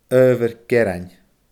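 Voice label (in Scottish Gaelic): "adhbhar gearain", Scottish Gaelic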